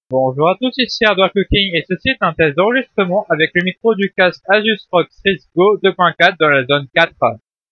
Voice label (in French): test micro; zone 4